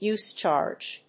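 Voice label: "USE CHARGE", English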